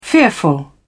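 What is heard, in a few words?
"fearful", British English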